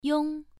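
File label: "yong1", Chinese pronunciation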